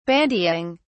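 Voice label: "bandying", British English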